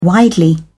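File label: "widely", British English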